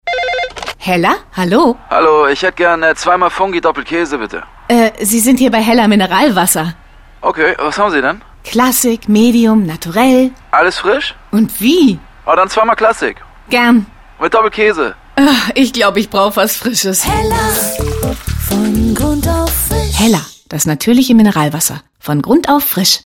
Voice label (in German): Radiowerbung; Hella; LOFT VOICES